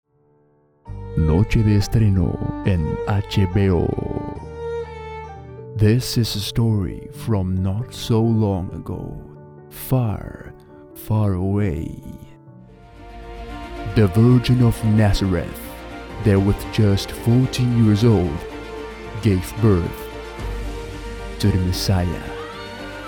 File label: TRAILER